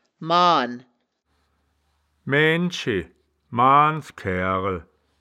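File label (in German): "maan", wittlicher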